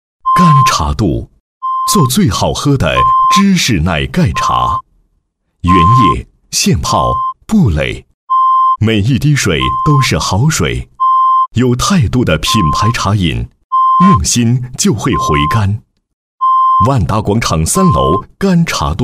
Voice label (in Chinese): 男, 品牌宣传 芝士奶盖茶宣传配音